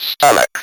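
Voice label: "stomach" English, Speak & Spell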